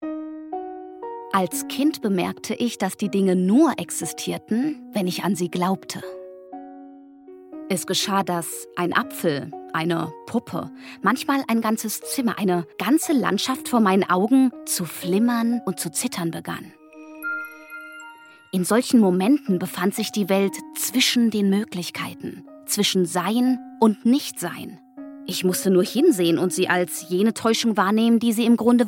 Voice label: Hoerbuch magisch

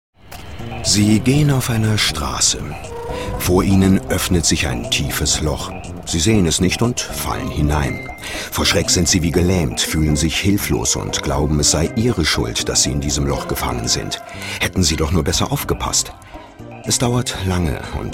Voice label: hörbuch; lass die kindheit hinter dir

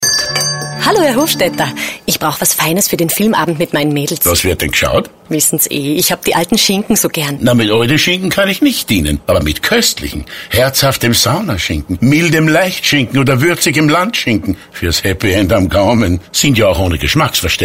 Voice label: Werbung Oesterreich Spot Kurz

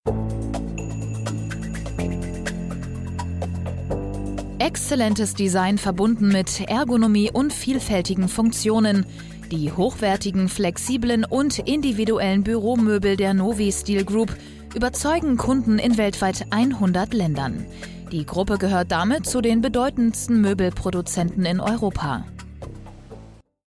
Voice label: Imagefilm

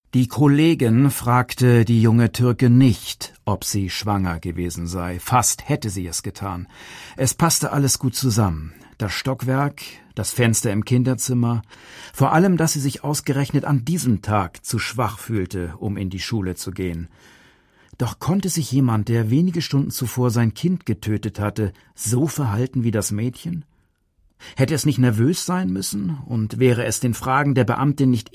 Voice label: hoerbuch

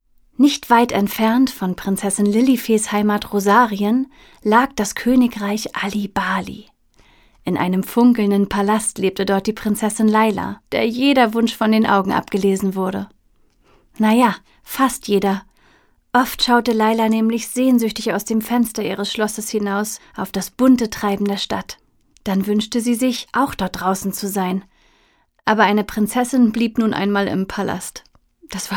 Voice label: HOeRSPIEL Kinder

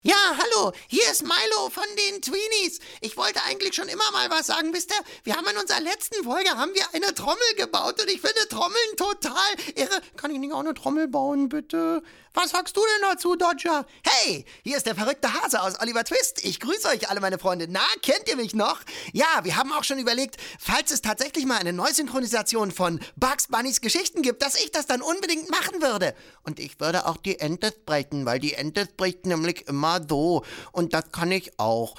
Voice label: trickstimme

